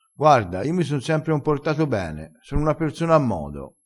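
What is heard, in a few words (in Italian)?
"se ma", dialettale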